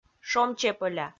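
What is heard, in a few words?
"шом чепӧля", Komi, female; kpv audio dict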